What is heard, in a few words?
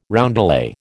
"roundelay", American